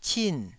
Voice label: "cin4", Taiwanese

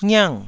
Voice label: "ngiang4", taiwanese syllables

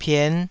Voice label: "pien5", taiwanese

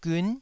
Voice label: "giun9", taiwanese

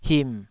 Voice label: "him3", Taiwanese syllable

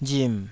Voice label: "rim3", taiwanese

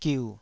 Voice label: "giu3", Taiwanese syllable

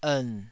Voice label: "ern3", taiwanese syllable

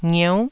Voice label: "ngieu9", Taiwanese syllable

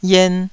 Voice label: "yen2", Taiwanese

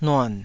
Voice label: "nuan3", Taiwanese

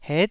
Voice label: "het9", taiwanese

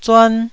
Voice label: "zuan1", taiwanese

